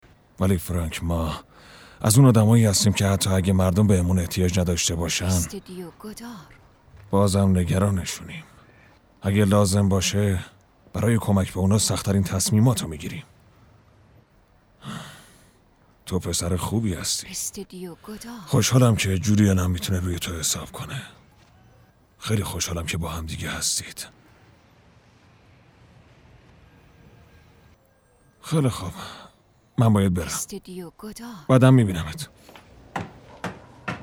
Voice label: dub